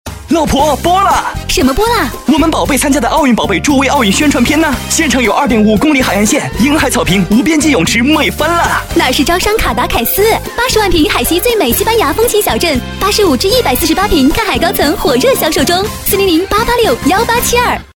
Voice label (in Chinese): 女 电台广告 男女搭配; 招商·卡达凯斯